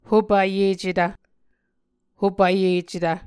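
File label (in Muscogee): "hopvyecetv", Muscogee word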